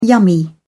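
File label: "yummy", British English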